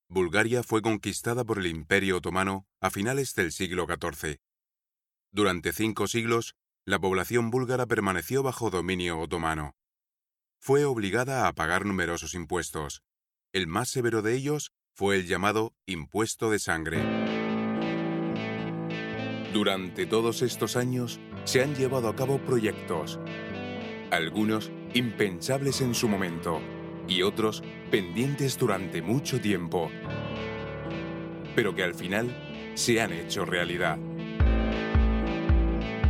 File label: spanish documentaries